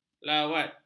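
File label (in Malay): "lawat", Malay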